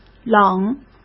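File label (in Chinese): "冷", Mandarin Chinese; hsk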